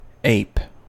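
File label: "ape", American English; pronunciations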